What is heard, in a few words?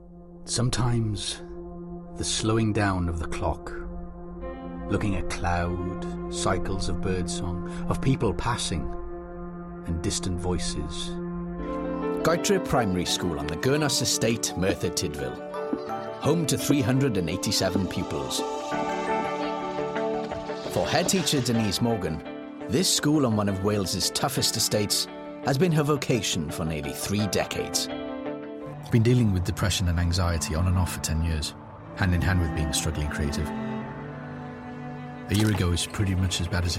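English UK, General; GoLocalise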